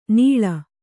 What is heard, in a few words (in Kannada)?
"ನೀಳ", Kannada